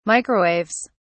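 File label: "microwaves", British English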